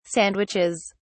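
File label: "sandwiches", British English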